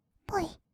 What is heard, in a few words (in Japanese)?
"ポイッ", kawaii voice; 1200 kawaii voice!!; 色々なワンショット